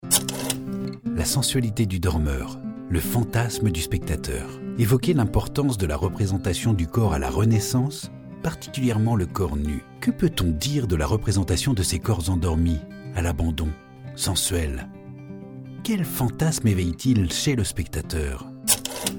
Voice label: Audio guide, VO